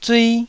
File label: "zui1", taiwanese